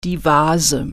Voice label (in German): "vase", German